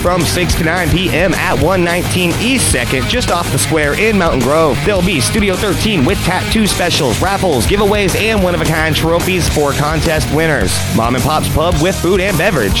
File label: commercial for bike night